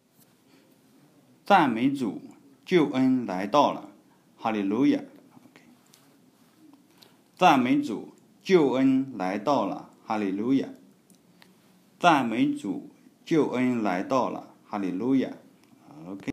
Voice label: chinese